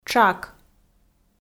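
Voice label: "тшак", Komi, female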